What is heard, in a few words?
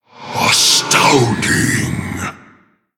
Male Orc Wow; Garrosh Deathknight